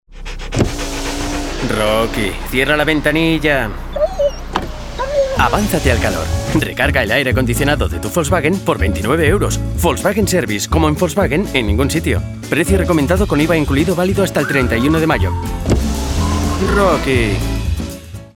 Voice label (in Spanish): CUÑA PRIMAVERA PERRO Vs HOMBRE; VW